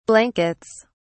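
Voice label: "blankets", British English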